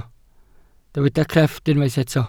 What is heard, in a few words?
"crefft", welsh